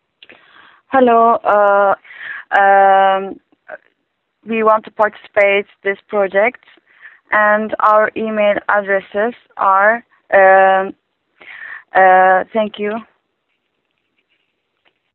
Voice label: Voicemail